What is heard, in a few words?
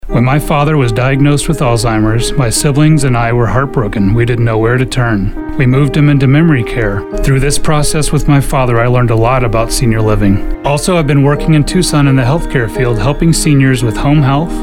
Radio Ad